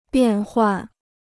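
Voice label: "变换", Chinese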